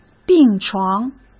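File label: "病床", Mandarin Chinese; chinese-flashcards; hsk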